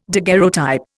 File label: "daguerreotype", Indian